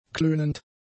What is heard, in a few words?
"klönend", German; adjectives